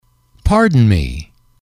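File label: "pardon me", English, male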